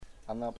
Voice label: your sister, chamacoco